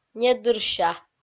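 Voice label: "недырся", Komi, female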